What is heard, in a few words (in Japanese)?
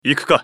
ウォーロック男 移動